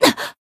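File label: Voice damaged, Japanese; hiina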